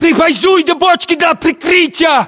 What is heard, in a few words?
order cover barrels, ru; localized english